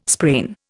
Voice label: "sprain", Indian